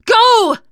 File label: emphatic go, teen girl